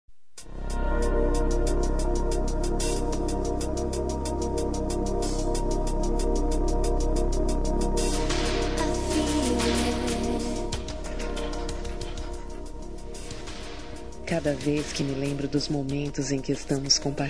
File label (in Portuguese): ROM EROTICA FEM; Telemensagens; Sensuais